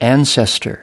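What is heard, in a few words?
"ancestor", US English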